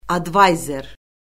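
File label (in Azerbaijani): "advayzer", Azerbaijani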